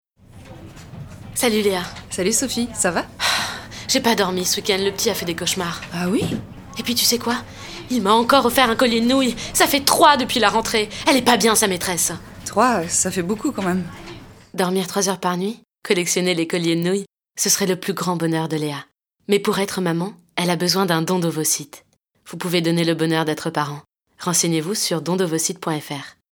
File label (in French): radio ovocytes